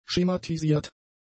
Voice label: "schematisiert", German; adjectives